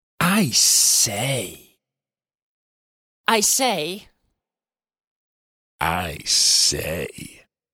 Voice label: I Say Spoken, Plummy upper class English voice saying ‘I say’ in 3 different phrases.